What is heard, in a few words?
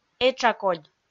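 "этшакодь", Komi, female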